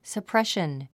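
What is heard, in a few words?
"suppression", English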